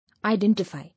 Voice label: "Identify", English